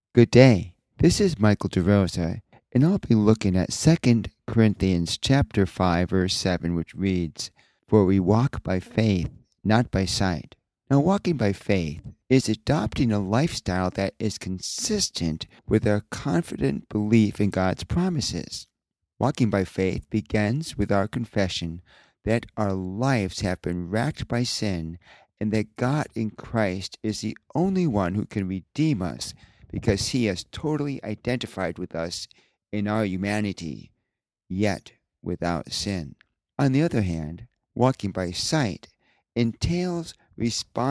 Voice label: Radio Sermonette; 2 Corinthians 5 7; Walking by Faith